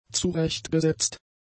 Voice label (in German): "zurechtgesetzt", German; adjectives